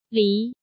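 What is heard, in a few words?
"Lí", Chinese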